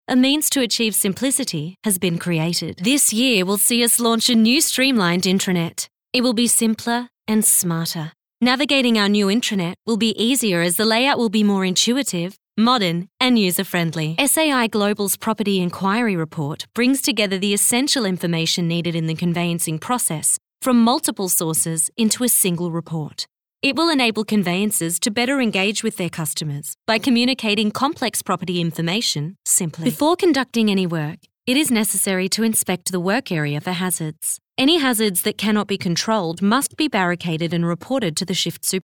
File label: Corporate